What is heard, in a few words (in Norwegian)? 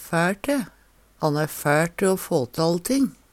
"fæL te", Numedalsmål; flink til